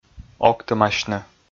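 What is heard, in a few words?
"октымасьны", Komi, male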